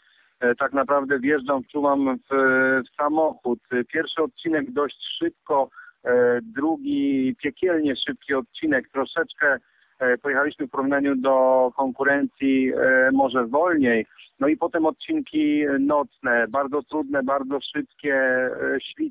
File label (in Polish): Wywiad, Rajd Chorwacji po pierwszym dniu